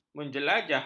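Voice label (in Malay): "menjelajah", Malay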